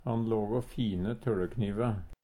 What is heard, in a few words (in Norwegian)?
tolekniv, Numedalsmål; Substantiv hankjønn